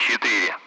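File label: Speech Sound Russian Common Allied Flight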